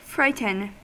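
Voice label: "frighten", American English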